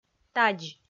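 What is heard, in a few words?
"тадз", Komi, female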